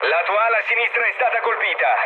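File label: Italian left wing mood low, speech; War Thunder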